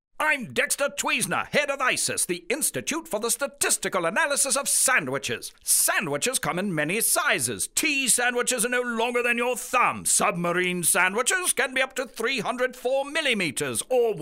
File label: British